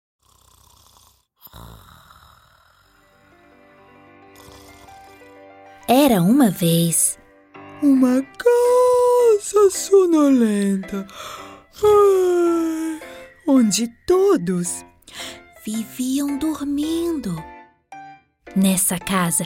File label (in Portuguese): Portuguese Brazilian audiobooks